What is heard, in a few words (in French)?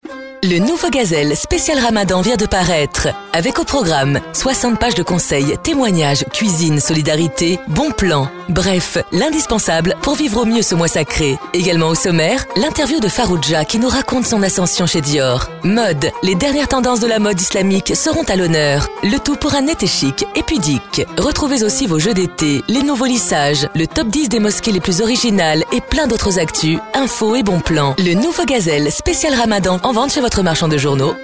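spot, spots radio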